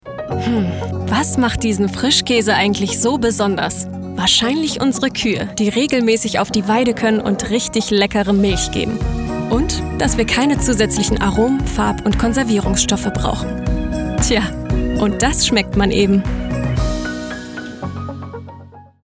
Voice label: Sprecherdemo Frischkaese

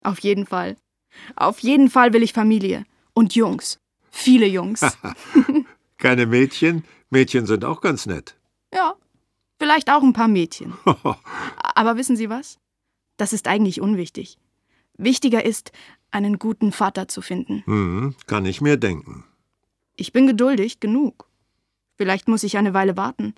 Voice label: hörspiel kurz; LOFT VOICES

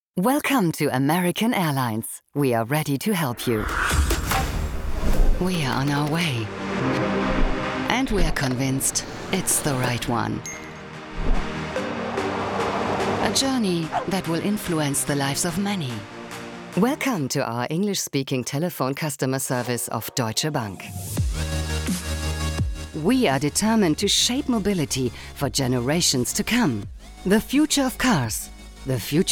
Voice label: Englisch

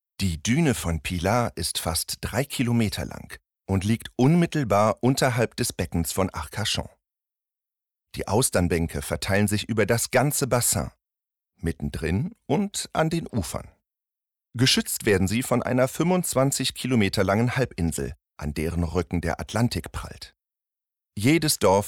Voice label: Doku locker

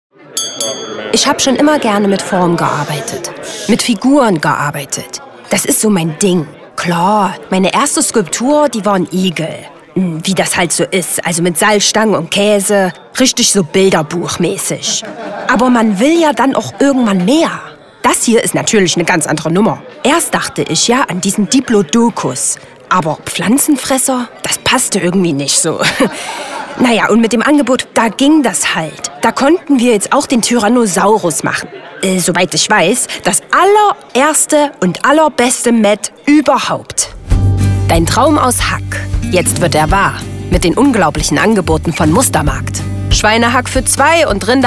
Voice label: Spot saechsisch; Hack

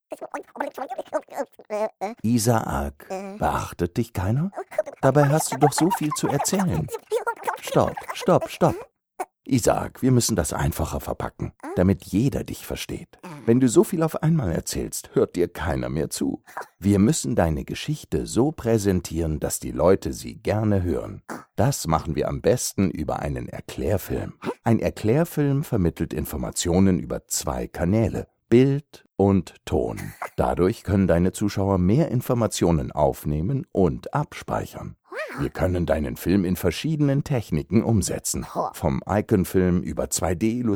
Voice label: erklaerfilm; ueber erklaerfilm

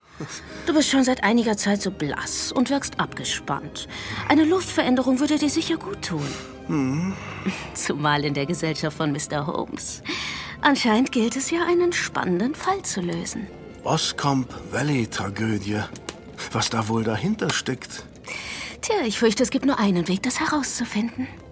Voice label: Hörspiel; Sherlock Holmes

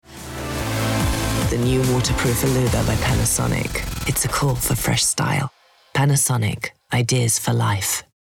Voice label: uk englisch, speaker

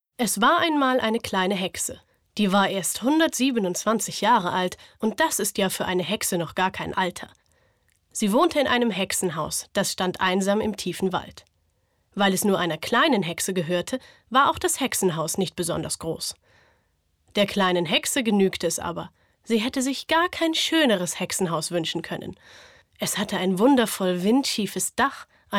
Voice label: Erzaehlung

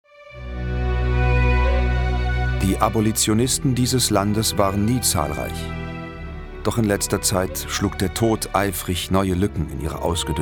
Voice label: doku; abolitionisten

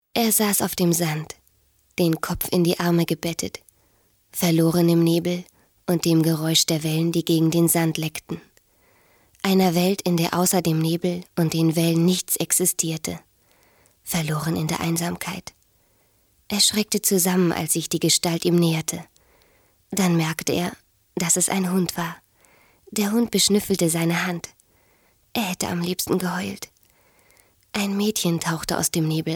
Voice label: erzaehlung

